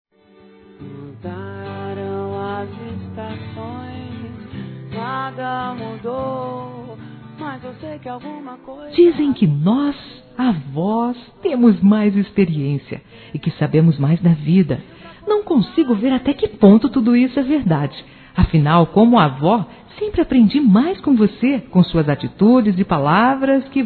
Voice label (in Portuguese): Aniversário Neto Feminino, Telemensagens; Aniversários; Aniv Familiar